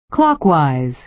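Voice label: "clockwise", American English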